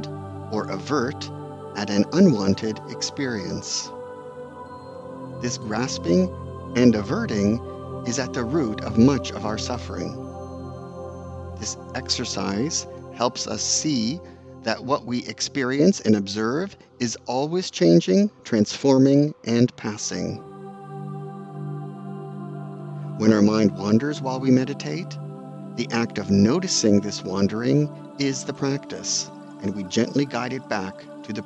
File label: Sleep Open and Allowing Meditation with Music